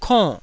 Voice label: "konn4", taiwanese syllable

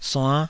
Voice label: "suann5", taiwanese Syllables

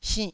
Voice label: "sinnh4", Taiwanese syllable

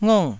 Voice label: "ngong4", taiwanese syllables

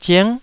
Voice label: "zing9", Taiwanese

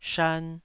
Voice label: "shan2", taiwanese

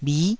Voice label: "bhi9", taiwanese

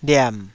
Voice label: "liam3", Taiwanese syllable

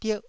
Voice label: "dierh1", taiwanese syllable

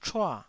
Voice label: "chua4", taiwanese

